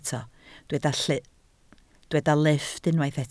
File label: "lifft", Welsh